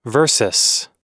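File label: "versus", English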